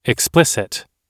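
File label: "explicit", English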